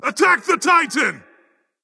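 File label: attack male berserker; smite; cuchulainn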